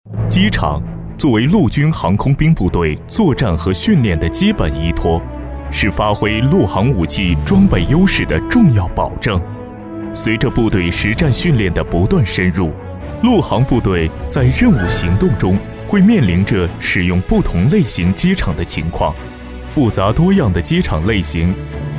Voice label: 男 专题介绍 严肃讲述; 部队使用机场; 质感磁性

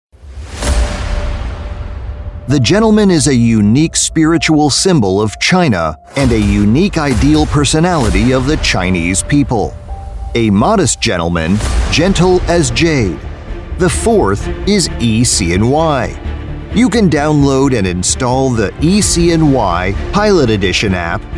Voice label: 美式 ~专题-沉稳

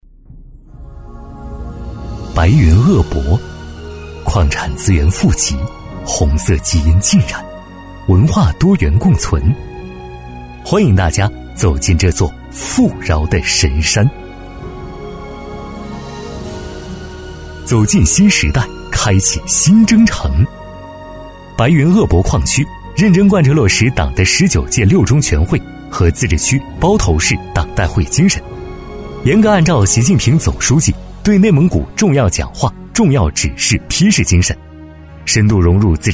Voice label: 男 党建专题 大气沉稳; 年轻质感; 大气浑厚

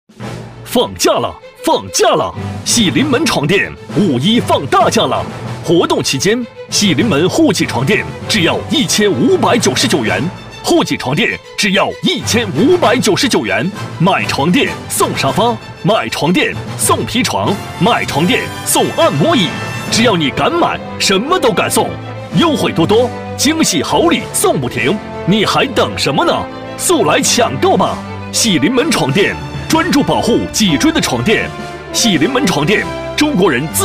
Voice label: 男 促销广告 激情促销; 男B2-厚重有力; 激情力度; 《喜临门床垫》

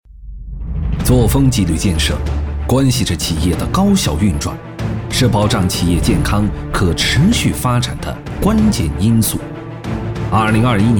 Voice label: 男 企业专题 严肃 正气; 破冰行动; 质感大气

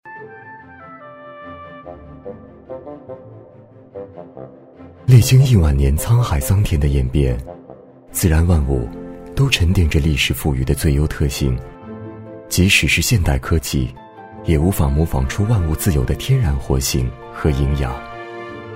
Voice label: 男 纪录片 舌尖感觉 柔和自然; 全能型 舌尖感

